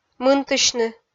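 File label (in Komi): "мынтысьны", Komi, female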